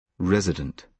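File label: "resident", British English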